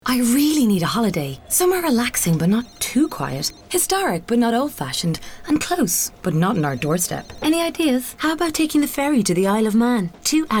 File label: steam car radio ad